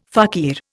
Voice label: "fakir", Indian

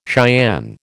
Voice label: "Cheyenne", American

